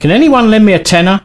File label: Need Money, male; svencoop